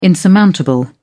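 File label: "insurmountable", British English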